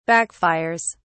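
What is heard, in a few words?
"backfires", British English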